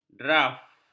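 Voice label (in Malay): "draf", Malay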